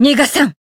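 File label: Voice finish Japanese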